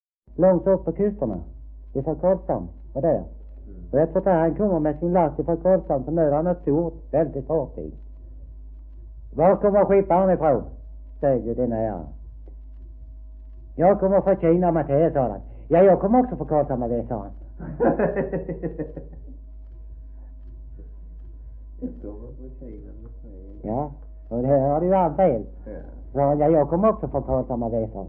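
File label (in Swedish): Degeberga Skåne, svenska sagor dialekter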